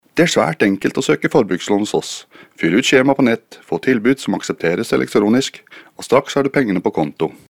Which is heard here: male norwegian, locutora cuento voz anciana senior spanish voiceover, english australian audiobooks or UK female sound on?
male norwegian